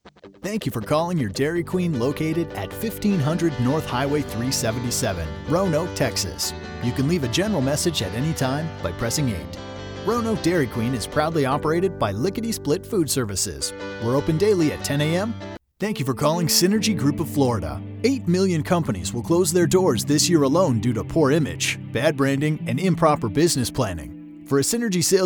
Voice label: IVR